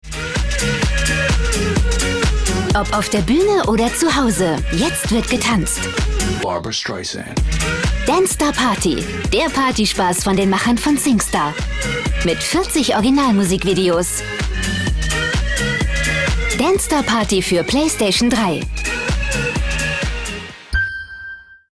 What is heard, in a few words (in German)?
Kraftvoll